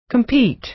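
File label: "compete", American English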